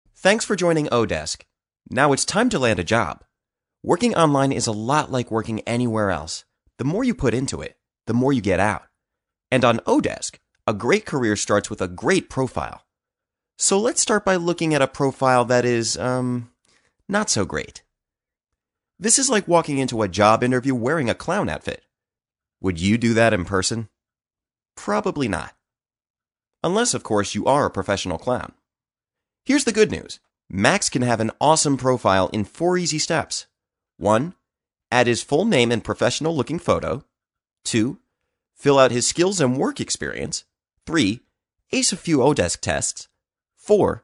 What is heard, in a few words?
US narration